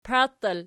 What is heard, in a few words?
"paitel", Archi